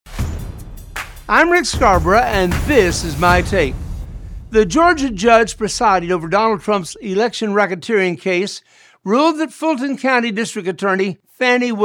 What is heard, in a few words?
Radio Spot; Dr. Rick Scarborough's quick one minute "takes"; Fani Willis